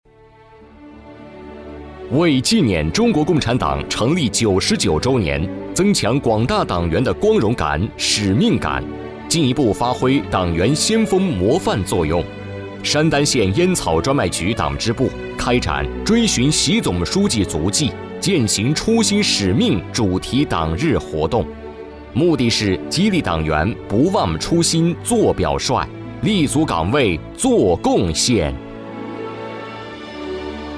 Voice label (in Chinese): 男-党建专题-烟草-沉稳-干脆-正气